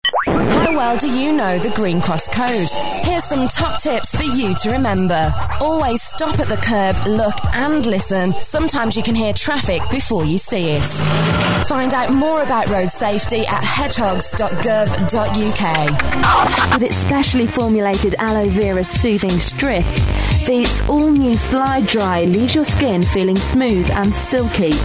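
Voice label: British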